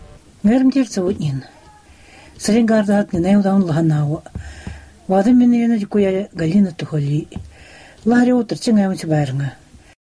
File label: short excerpt in Tundra Nenets from the anniversary interview; Yamal